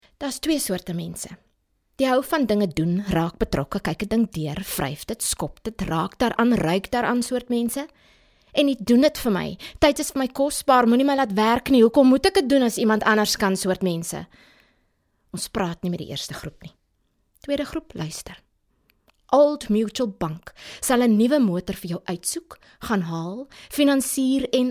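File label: afrikaans hard sell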